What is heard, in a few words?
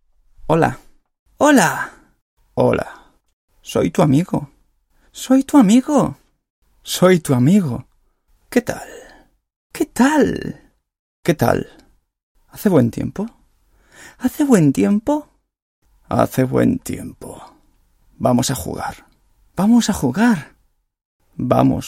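Spanish man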